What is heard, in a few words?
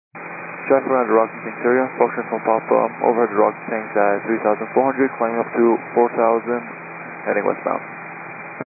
122.925 MHz; scanner radio